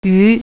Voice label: "fish", Mandarin